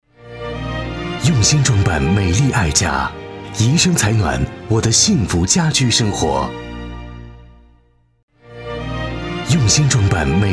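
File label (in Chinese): 男S347 国语 男声 广告-智能家居广告-品质大气 大气浑厚磁性 沉稳; 怡生采暖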